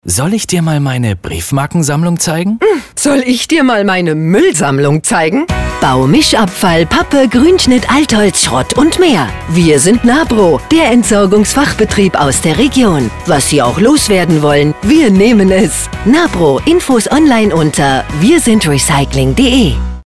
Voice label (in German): Radiospot Wertstoffhof